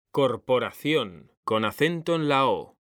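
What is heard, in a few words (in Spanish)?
"CORPORACIÓN" Spanish, male; mekanta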